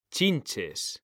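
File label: "chinches", Spanish, male; mekanta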